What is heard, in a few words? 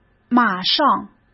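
"马上", Mandarin Chinese; chinese-flashcards; hsk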